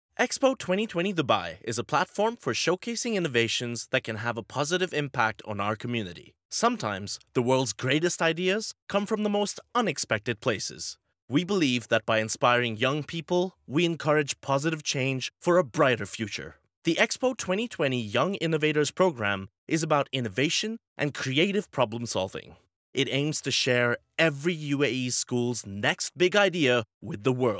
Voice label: English Presentation Informative

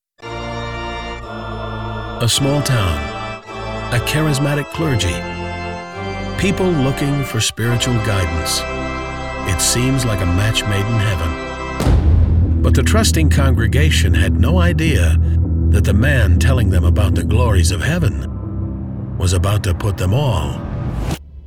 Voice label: Documentary

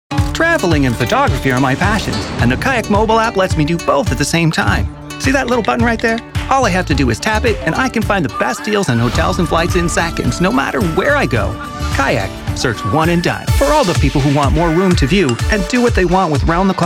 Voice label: Commercials

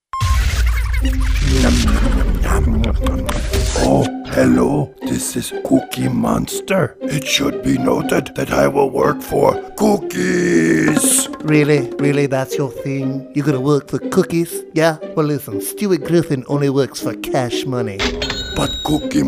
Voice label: Impersonations; cookie monster, stewie griffen, mickey mouse, obama, trump, quagmire

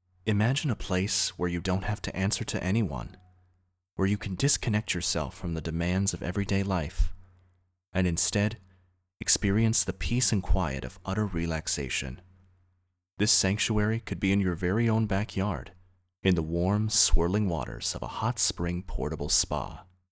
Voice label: Provocative

